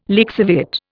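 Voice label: "lixiviate", Indian English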